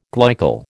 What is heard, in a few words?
"glycol", American